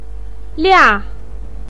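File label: "lia", Mandarin Chinese, fourth tone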